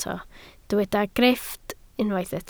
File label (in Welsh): "grifft", Welsh